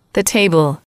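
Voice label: "table", English, female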